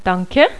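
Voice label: "DANKE", German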